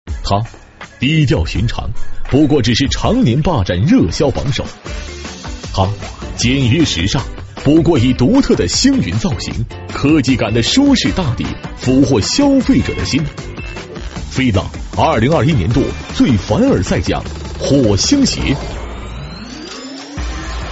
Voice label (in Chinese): 男 广告 颁奖; FILA颁奖